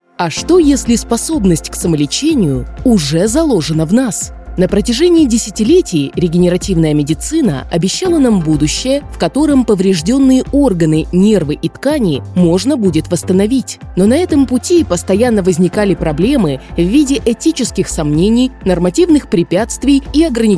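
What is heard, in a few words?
Russian Narration; GoLocalise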